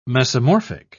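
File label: "mesomorphic", British English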